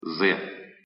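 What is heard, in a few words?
"з", Russian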